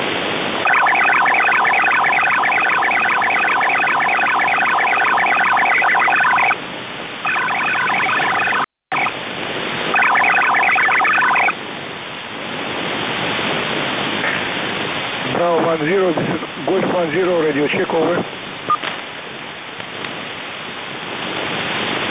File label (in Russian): castota 57545khz usb vs ukraini